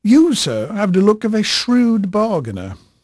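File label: English male, voice; Pirates Of The Caribbean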